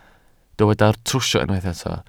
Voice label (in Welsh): "trwsio", Welsh